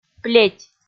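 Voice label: "плеть", Komi, female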